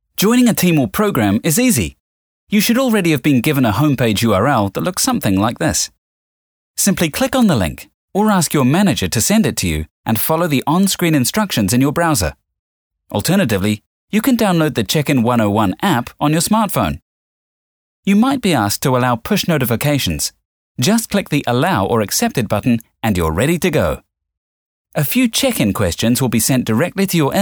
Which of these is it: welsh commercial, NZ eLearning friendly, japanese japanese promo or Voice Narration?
NZ eLearning friendly